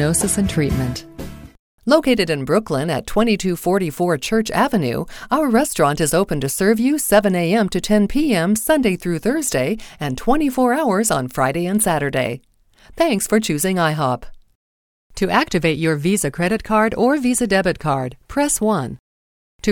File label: Phone IVR On-hold